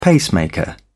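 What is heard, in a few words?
"pacemaker", British English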